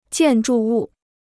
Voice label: "建筑物", Chinese